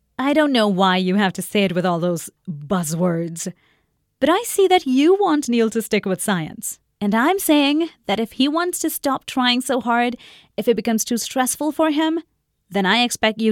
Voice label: North American Character Dialogue

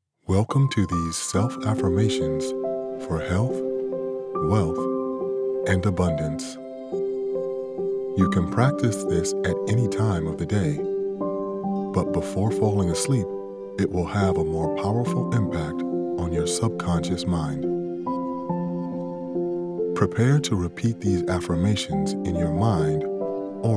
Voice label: Affimation Meditation

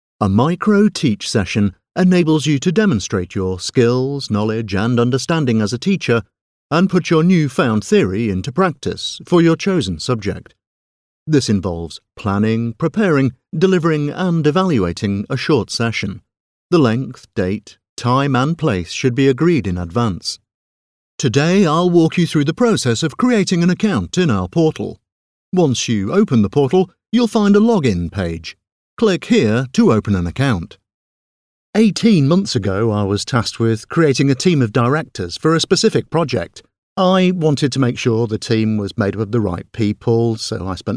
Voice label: voiceover narrative e-learning

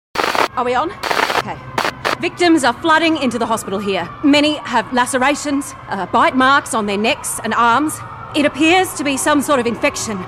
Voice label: Zombie Apocolypse Reporter

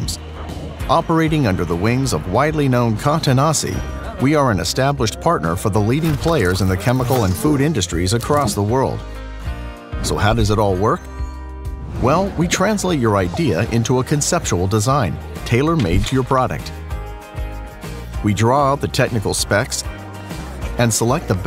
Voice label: Explainer Material Handling

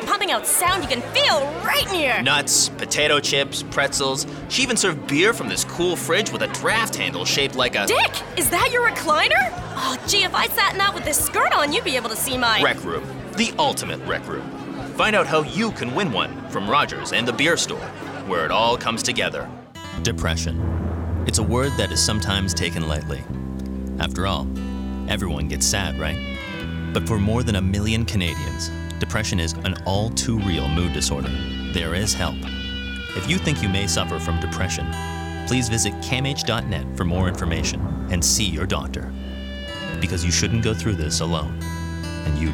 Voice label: Commercial Voice Over

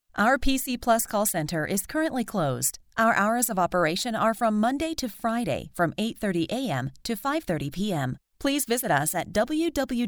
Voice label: IVR

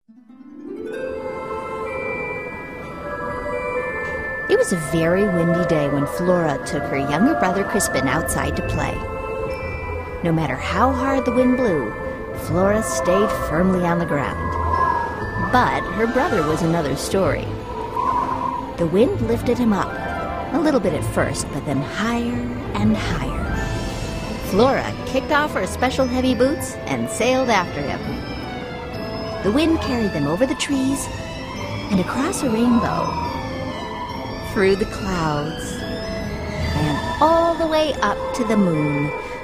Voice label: Children's Narration

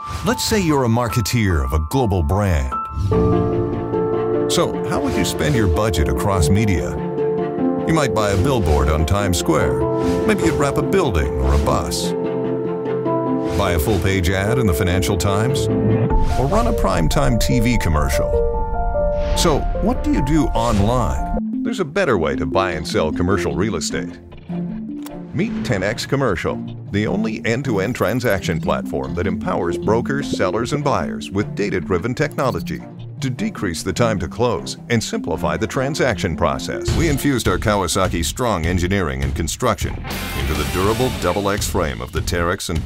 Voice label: corporate narration

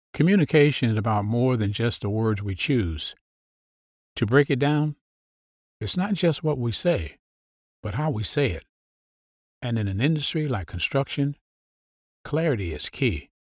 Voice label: African American Male

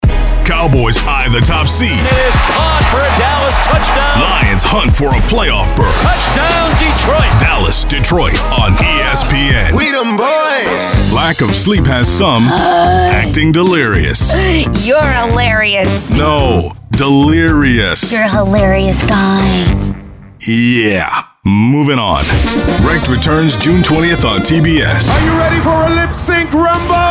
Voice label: Promo

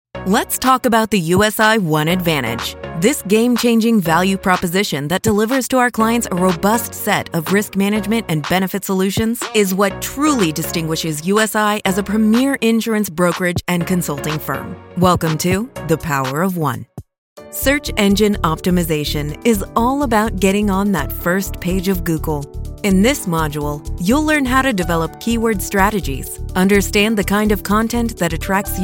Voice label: Corp Narration

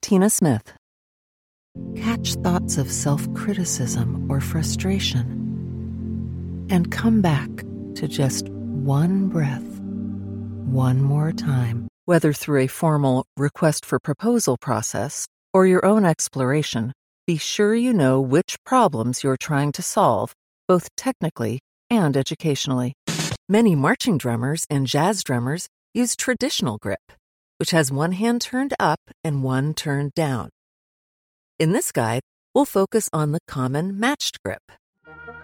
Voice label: e-Learning

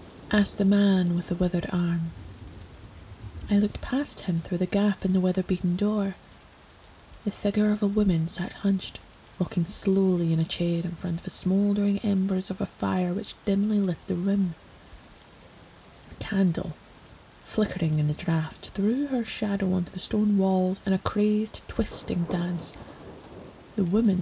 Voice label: character narration

